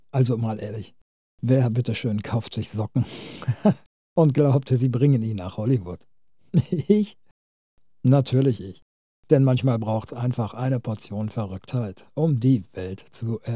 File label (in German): Humorvoll locker